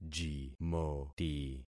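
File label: "jimoti", concatenated syllables; Affixing experiment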